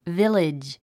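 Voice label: "village", English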